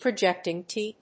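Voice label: "projecting teeth", English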